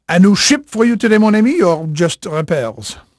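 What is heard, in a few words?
French male, voice; Pirates Of The Caribbean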